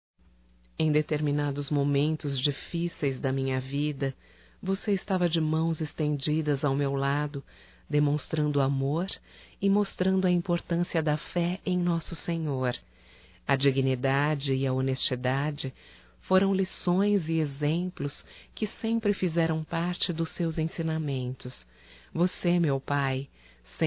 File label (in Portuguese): EVAN PAI (F); Telemensagens 2017